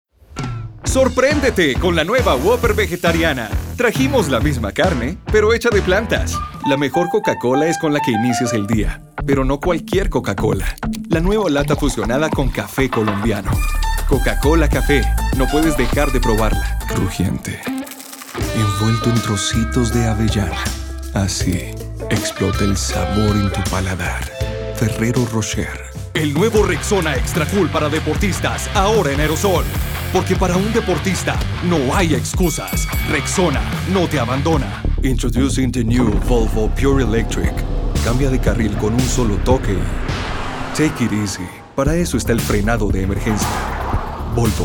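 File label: Latin American Spanish, Commercial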